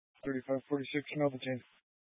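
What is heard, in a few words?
Police Dispatch, Scanner audio; Police 1 Dispatch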